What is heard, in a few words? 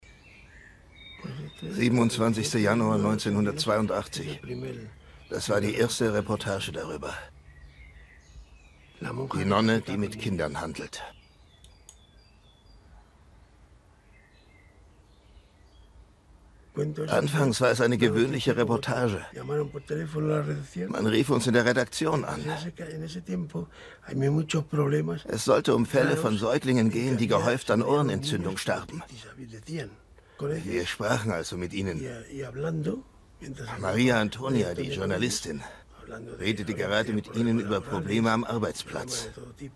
Voiceover German; Francos Erbe Spaniens geraubte Kinder; ARTE